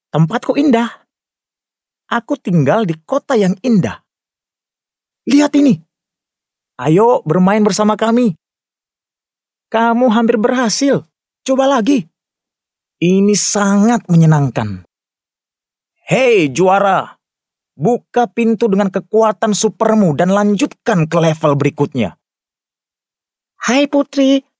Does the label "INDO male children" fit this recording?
Yes